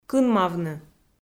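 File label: "кынмавны", Komi, female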